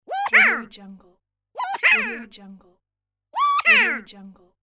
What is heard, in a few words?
cartoon cute pitched "yeehaw"